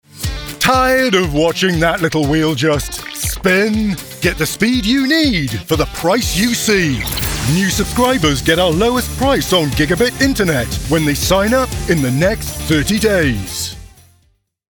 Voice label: Commercial, Internet Provider / Upbeat, High Energy, Commanding